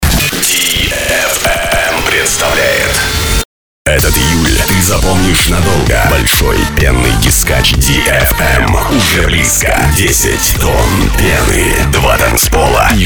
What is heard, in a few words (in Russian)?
АУДИО АФИША; Эмбарго Вилла - Большой пенный Дискач Ди-Эф-Эм 1-3